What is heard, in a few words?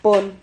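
"pon", Spanish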